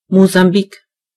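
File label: "mozambique", German; gcompris; geography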